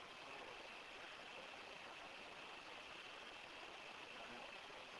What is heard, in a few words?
Unexplained low voice (Help)